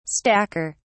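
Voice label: "stacker", British English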